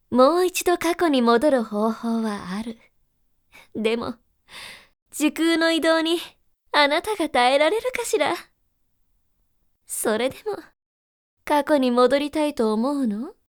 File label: girl oneesan